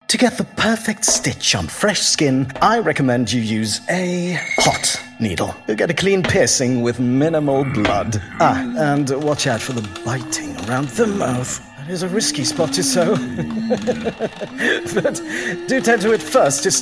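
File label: English UK, Narration; GoLocalise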